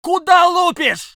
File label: voice sov infantry